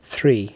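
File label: "three", English word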